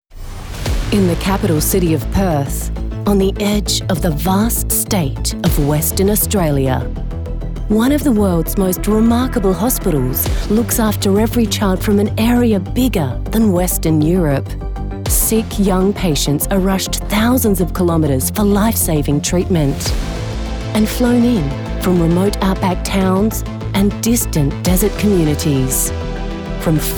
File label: Narration